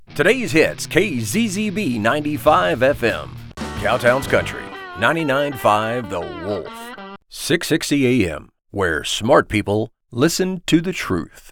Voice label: Radio Imaging